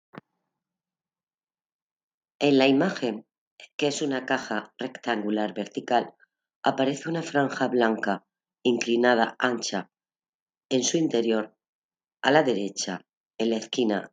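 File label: count to five in french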